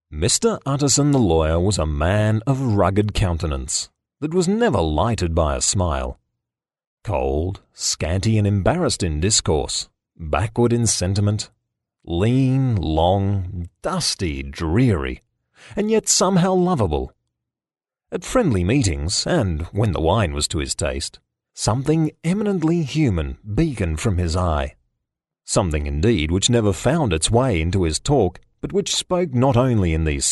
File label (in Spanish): Masculino